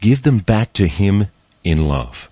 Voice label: give them back in love, Evangelists and Preaching and Religious